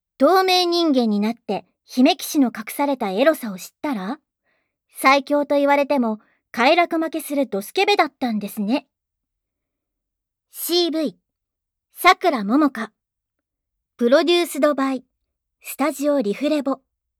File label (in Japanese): タイトルコール, ASMR